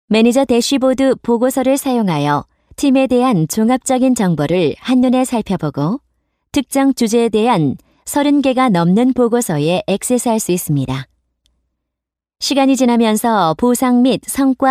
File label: kr corporate